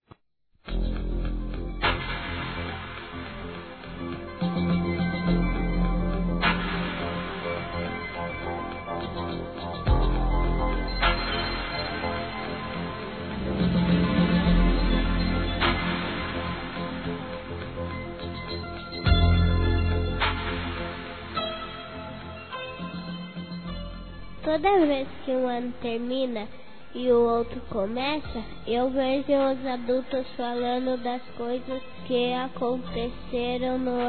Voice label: Ano Novo Infantil Feminino; Telemensagens; Natal e Ano novo

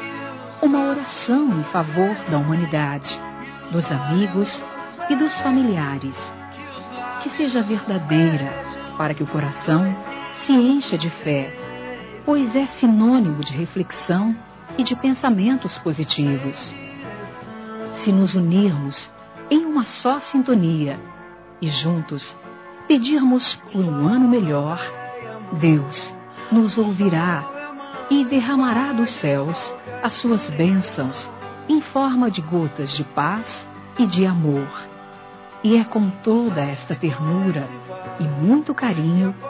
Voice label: NATAL P FILHO PLUR. F; Telemensagens; Natal e Ano novo; fem

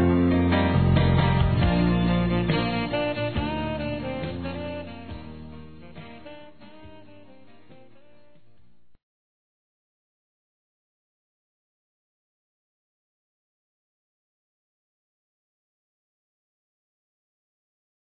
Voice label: MAGOADO C VOCÊ M, Telemensagens; masc; Telemensagens 2017

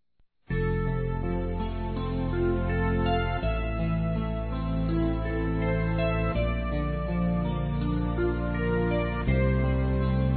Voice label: ANIV. GERAL EVANGÉLICO, Gospel, fem; Telemensagens; Telemensagens 2017

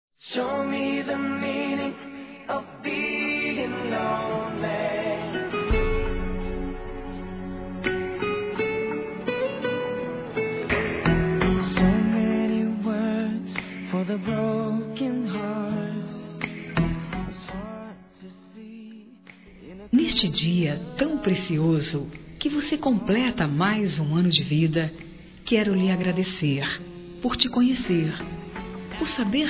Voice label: ANIV. GERAL F

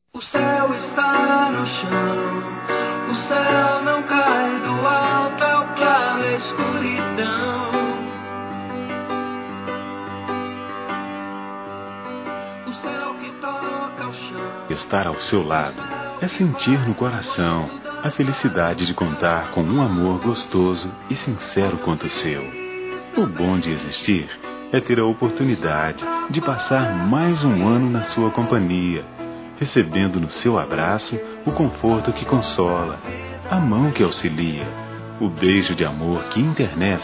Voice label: ANO NOVO ROMANTIC M; Telemensagens; Natal e Ano novo; masc

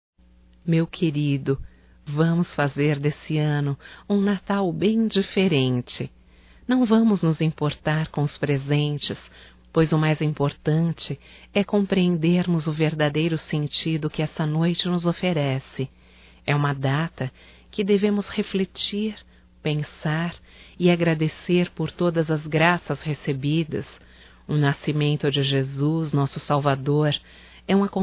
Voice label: Romântico Feminino; Telemensagens 2017; Natal & Ano Novo

